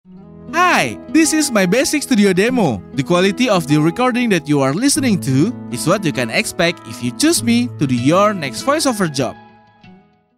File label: English introduction